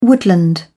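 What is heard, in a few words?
"woodland", British English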